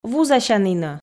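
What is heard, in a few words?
"вузасянінӧ", Komi, female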